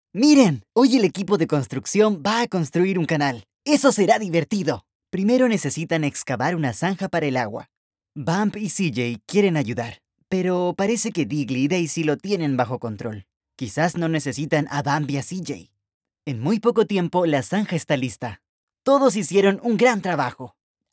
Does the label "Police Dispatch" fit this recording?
No